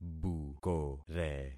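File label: "bukore", concatenated syllables; Affixing experiment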